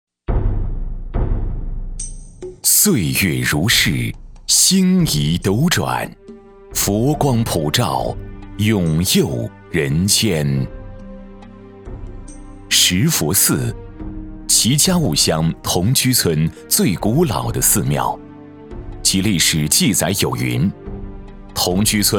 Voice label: 男, 记录片; 石佛寺庙

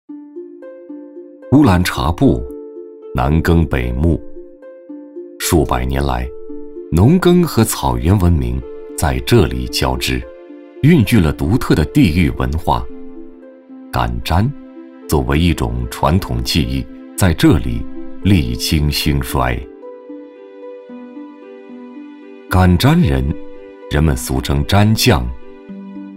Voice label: 男 纪录片; 老手工艺人

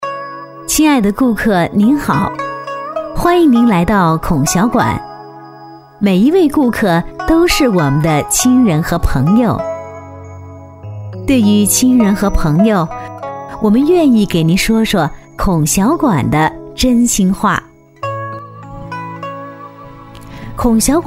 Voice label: 女-课件-广播语音; 孔小馆

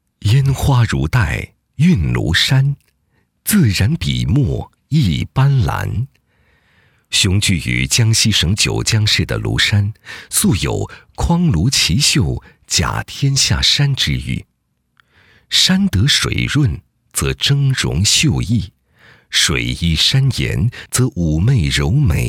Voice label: 男 纪录片; 庐山记录

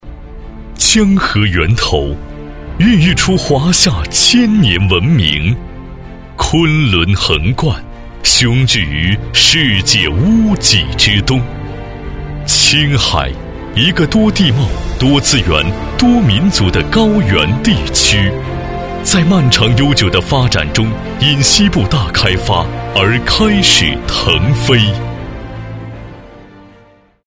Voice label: 男 专题 城市宣传; 青海

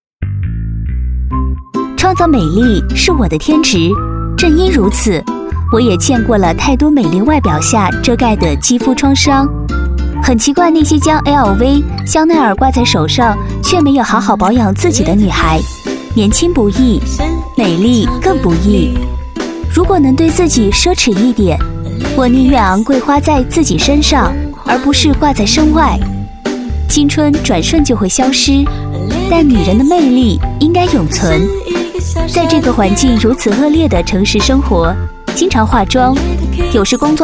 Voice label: 女4-广告-化妆品广告, 女

